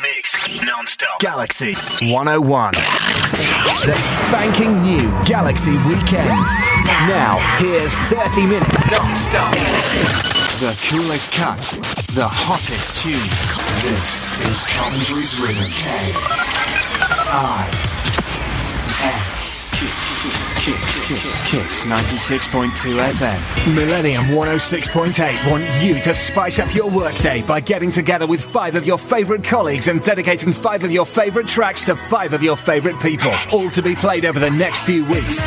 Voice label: radio idents

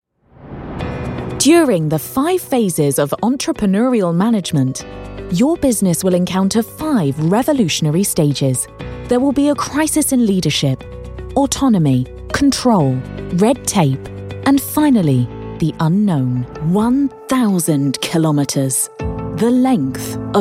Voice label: corporate

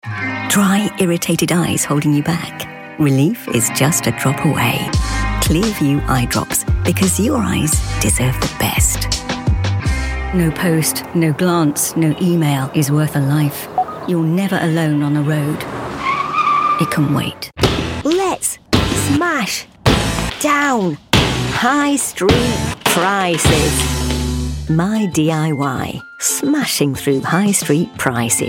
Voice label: commercial montage

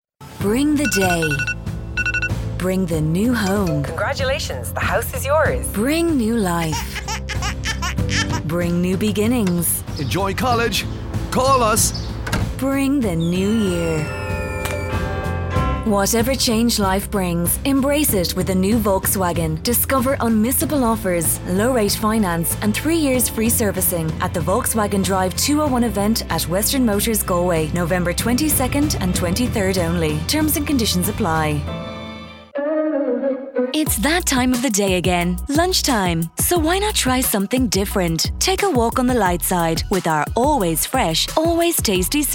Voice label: irish voiceover commercial

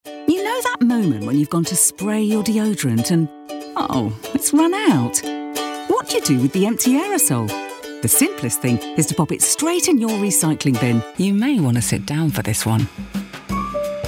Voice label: recent commercials

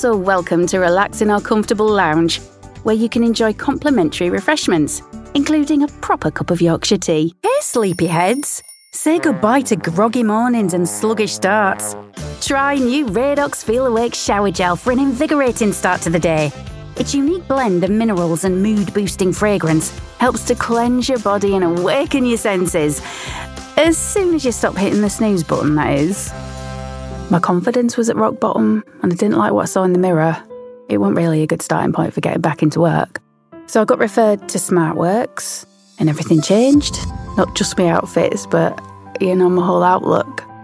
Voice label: Leeds accent

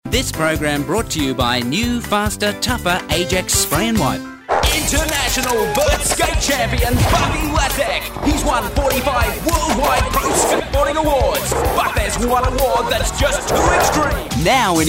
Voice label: Australian montage

